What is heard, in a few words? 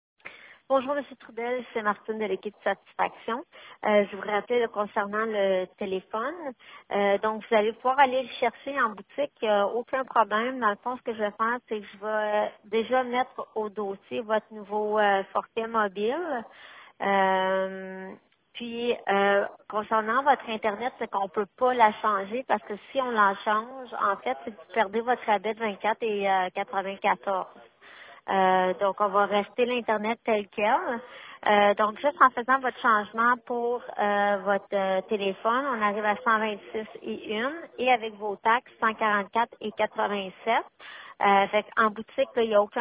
Voicemail; iPhone XS recording app; Videotron